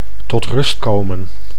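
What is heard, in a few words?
"tot rust komen", Dutch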